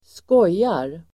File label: "skojar", Swedish